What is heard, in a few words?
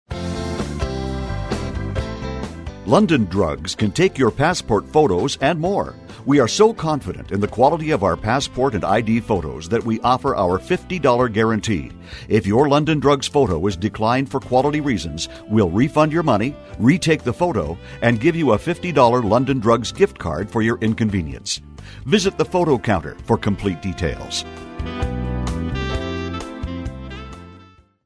On Hold